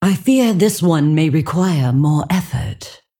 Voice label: Female Dragon Bark; Alexstrasza